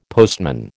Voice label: "postman", American

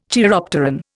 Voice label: "chiropteran", Indian

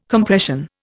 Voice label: "Compression", Indian

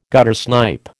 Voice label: "guttersnipe", American

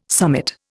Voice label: "summit", Indian

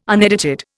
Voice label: "unedited", Indian

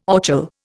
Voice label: "orchil", Indian

